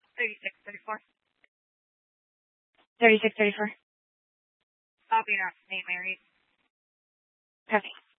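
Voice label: Police 1 Dispatch, Scanner audio recording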